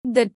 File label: Phoneme Female; Commonscript; Lynguistykon